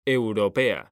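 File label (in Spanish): "europea", Spanish, male; mekanta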